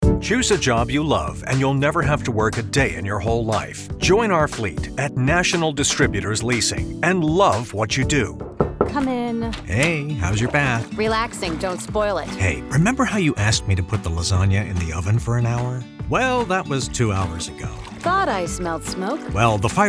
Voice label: Commercial

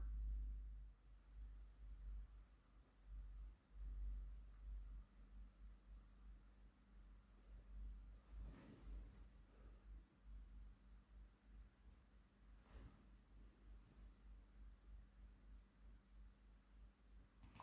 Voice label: male

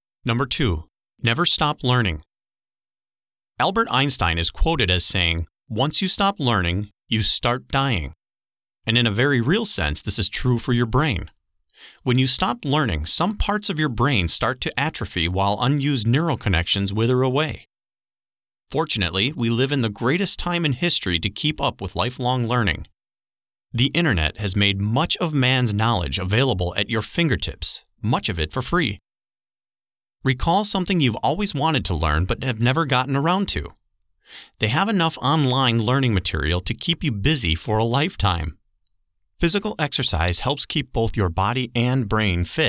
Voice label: Audiobook

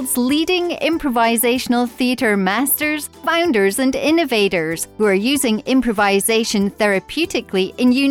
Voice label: Intro and Outro with Music; Improv Interviews

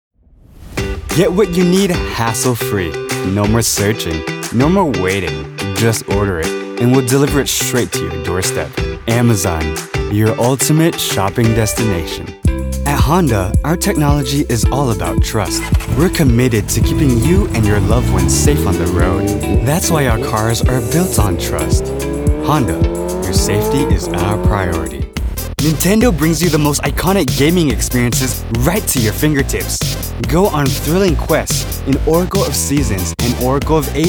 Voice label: Commercial; Commercial Demo

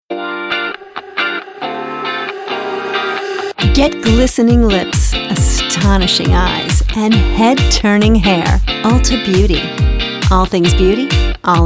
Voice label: Commercial

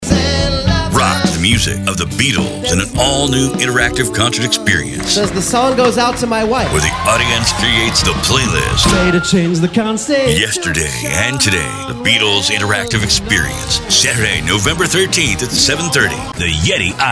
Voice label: Voice Over; VoiceOver DEMO

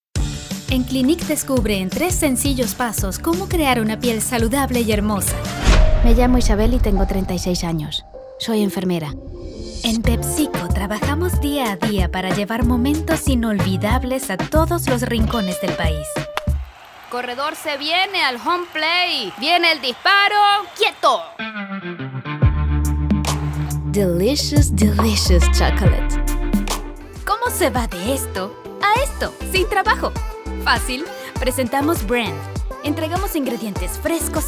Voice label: Commercial Spanish

